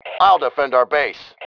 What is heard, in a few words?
defending base, male